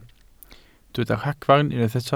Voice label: "ragfarn", Welsh

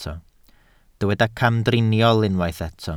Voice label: "camdriniol", Welsh

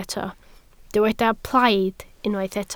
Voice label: "plaid", Welsh

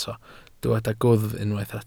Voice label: "gwddf", Welsh

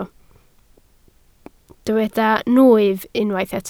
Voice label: "nwyf", Welsh

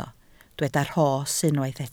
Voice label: "rhos", Welsh

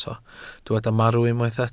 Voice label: "marw", welsh

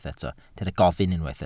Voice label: "gofyn", Welsh

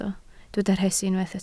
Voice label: "rhesi", Welsh

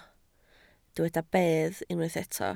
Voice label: "bedd", Welsh

